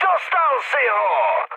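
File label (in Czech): Czech player killed enemy, mood medium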